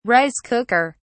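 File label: "rice cooker", British English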